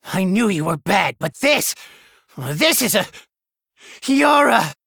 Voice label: Male Gnome; Scabbs

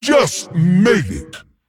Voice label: Male Dragon Attack

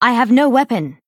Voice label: Female Dryad ERROR

